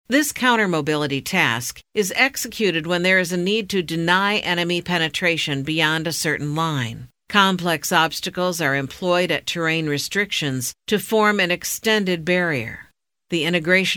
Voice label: E-Learning combat med training